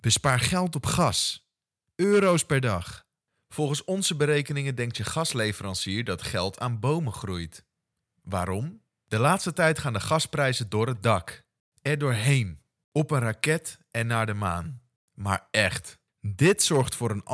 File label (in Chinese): 荷兰语男